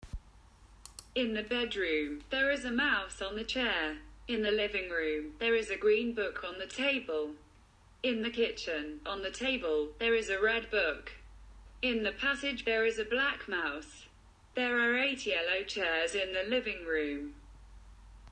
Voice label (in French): anglais listen and draw